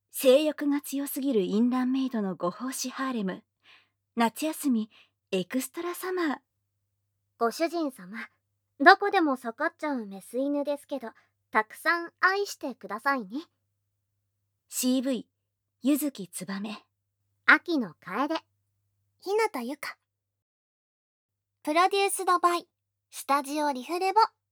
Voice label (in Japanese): タイトルコール, ASMR